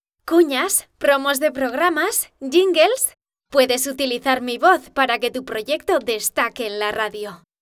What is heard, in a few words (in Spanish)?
mezcla RADIO CAS